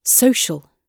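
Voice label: "social", British English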